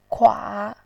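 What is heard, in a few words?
"kua3", Mandarin Chinese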